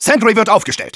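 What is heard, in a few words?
autobuilding sentry, German; Engineer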